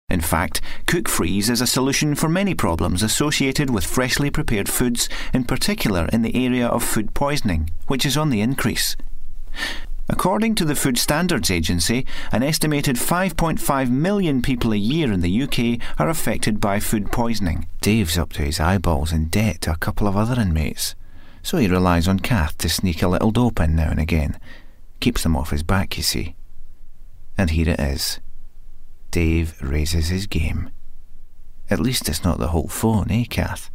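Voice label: British, Scottish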